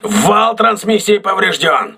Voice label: voice message transmission damaged, crew ships russian